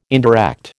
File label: "indirect", American